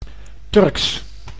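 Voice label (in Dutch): "Turks", Dutch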